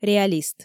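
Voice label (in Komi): "реалист", Komi, female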